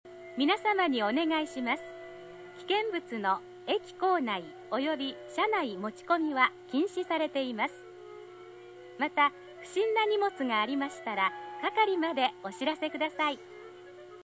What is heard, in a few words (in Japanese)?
案内・啓発放送, 禁煙タイムや、○○カード発売中などと言った宣伝や注意を込めた一定期間で流れる自動放送。; いつ、どのタイミングで流れるか分からない。; 七隈線